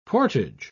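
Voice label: "portage", British English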